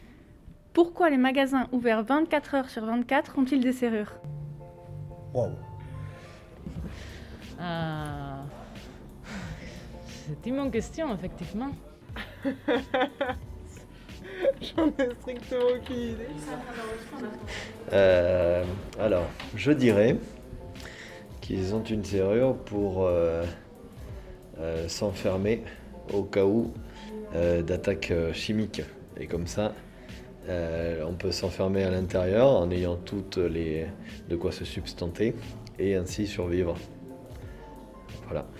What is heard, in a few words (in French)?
micro-trottoir; graine de voix